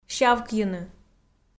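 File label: "сявкйыны", Komi, female; kpv audio dict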